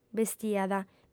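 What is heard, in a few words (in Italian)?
"bestiat", lingua sarda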